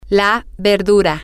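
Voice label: the vegetable, Spanish, female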